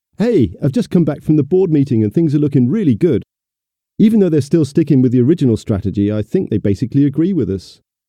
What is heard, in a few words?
without voice clarity